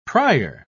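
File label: "prier", British English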